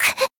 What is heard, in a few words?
damaged, jp; maturika